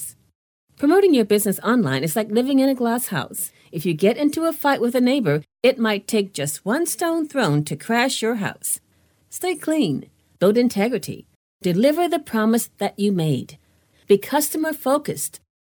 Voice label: website narration stress